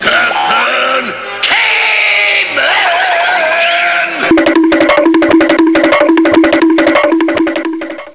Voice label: caveman